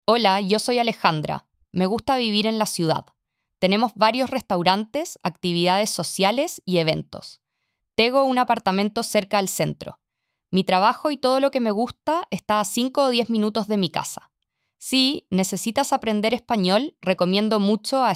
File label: Latin american spanish; spanish speaking countries